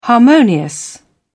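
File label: "harmonious", British English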